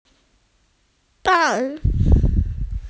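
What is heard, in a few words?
"bai2", Mandarin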